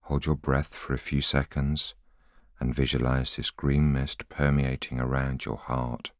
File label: voiceover